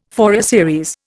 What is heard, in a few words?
"Fourier series", Indian